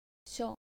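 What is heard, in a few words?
"sho", Japanese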